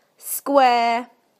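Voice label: "square", British English; PictureDictionary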